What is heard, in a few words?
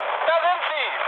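German enemy detected mood med, speech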